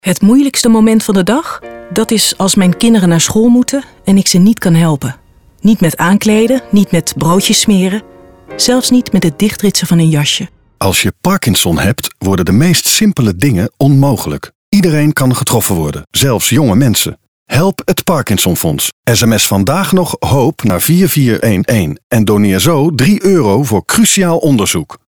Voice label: radiospot; ParkinsonFonds; Doneer 3 euro